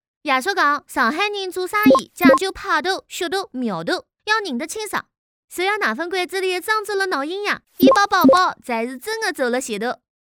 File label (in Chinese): 上海方言 女 上海话; 方言配音; 繁花台词